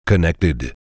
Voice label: connected, default male; TeamSpeak3 Client

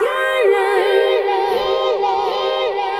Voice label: VOICE FX, Drum Bass, 160; eJay

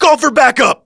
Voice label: panic, thug; SINDEMO; bank

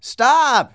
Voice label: "stop", phrases funny; Aristosoft, Inc.

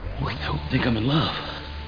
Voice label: STATIC; Babylon 5; Garibaldi

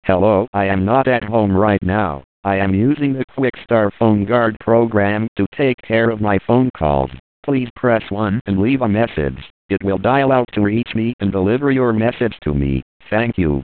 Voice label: ANNOUNCE; quikphon

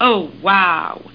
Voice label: Oh Uau!

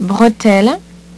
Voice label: "bretelle", French; HyperSound™2

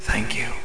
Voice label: Male council member thanks; Curse of Dragor Demo

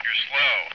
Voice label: Slow; F/A-18 Hornet 2.0 Demo

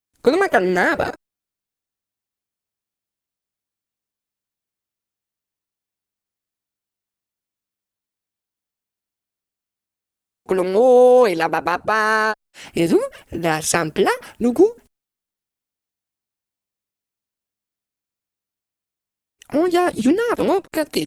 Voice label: talk Casual; Singles; French Version; Pacifica

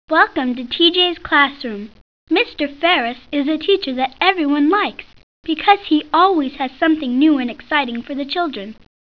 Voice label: Narrator; T.J. Finds a Friend

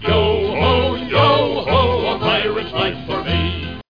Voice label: pirate

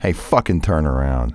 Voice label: cuss, male; kingpin; profanity

